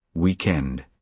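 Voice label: "weekend", British English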